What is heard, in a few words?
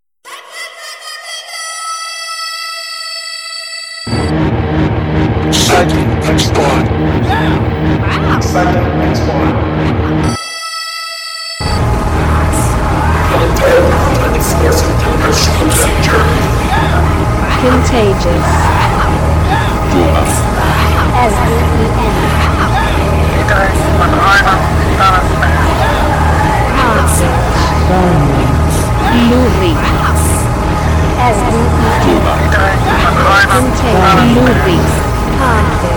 radio leader mono